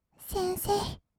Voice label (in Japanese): 先生, kawaii voice; ASMR; 二人称; 1200 kawaii voice!!